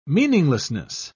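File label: "meaninglessness", British English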